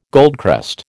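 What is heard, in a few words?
"goldcrest", American English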